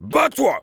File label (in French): Heavy melee dare, fr; Bats-toi !